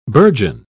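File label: "bourgeon", British English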